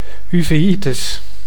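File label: "uveitis", Dutch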